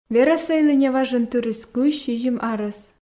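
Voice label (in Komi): "верӧсӧйлы неважӧн тырис кызь сизим арӧс", Komi, female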